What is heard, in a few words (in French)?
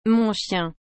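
"mon chien", French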